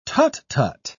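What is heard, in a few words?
"tut-tut", English, British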